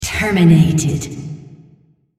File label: female On Champion Kill Turret